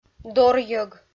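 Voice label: "дорйӧг", Komi, female; kpv audio dict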